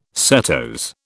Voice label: "setose", British